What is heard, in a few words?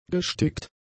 "gestickt", German; adjectives